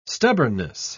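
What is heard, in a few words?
"stubbornness", English, uk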